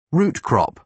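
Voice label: "root crop", British English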